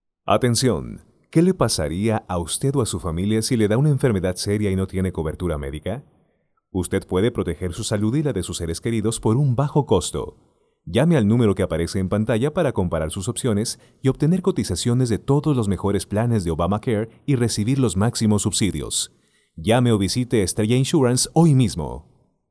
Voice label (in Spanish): LOC COMERCIAL Y PUBLICITARIA; ESTRELLA INSURANCE